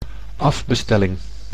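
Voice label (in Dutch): "afbestelling", Dutch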